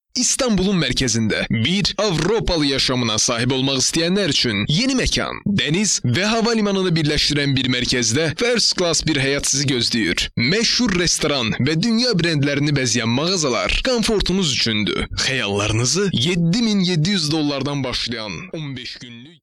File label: Azerbaijani Native